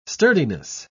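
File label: "sturdiness", English, uk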